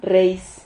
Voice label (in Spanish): "rreis", Silabas